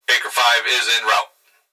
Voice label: Emergency Human Male Voice Police Radio Baker Is In Route; emergency effects